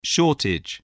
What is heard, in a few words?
"shortage", spelling